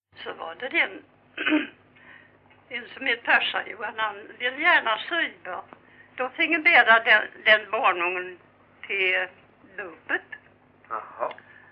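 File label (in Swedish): Grimeton Halland, Svenska sagor dialekter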